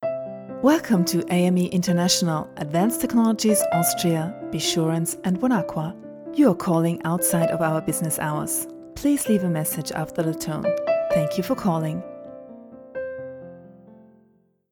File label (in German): mit Musik; Werbestimme